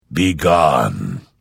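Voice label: Hello, Vo; Morrowind GOTY GOG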